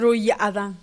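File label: "ruyaadan", Teotitlán del Valle Zapotec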